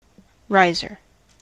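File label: "riser", US English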